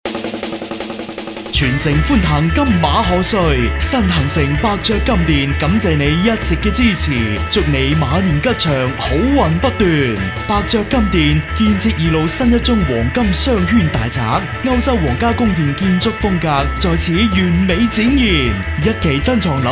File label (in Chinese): 男 楼盘广告; 伯爵金殿楼盘广告